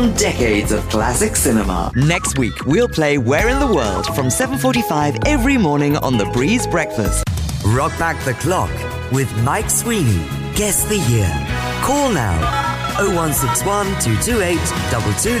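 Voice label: radio imaging, Husky Female Voice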